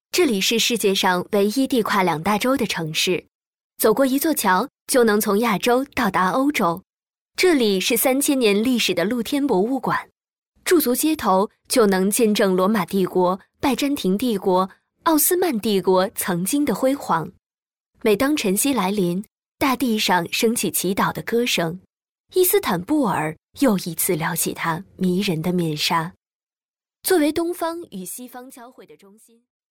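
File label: Mandarin Chinese, female, voice over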